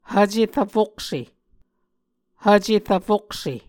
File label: "hvce rvfokse", Muscogee